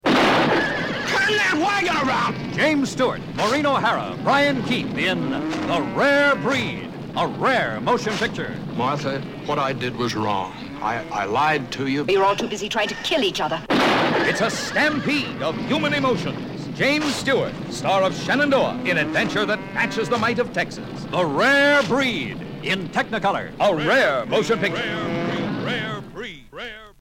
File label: Radio Spot; Rare Breed